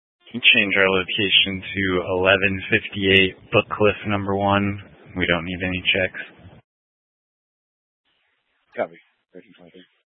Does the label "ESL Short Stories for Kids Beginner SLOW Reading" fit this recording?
No